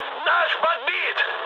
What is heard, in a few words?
aircraft destroyed, mood med, speech ru